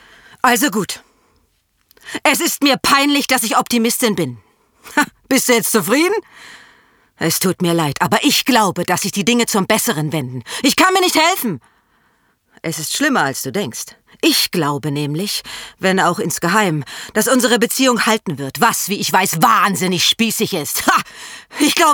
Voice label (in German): Monolog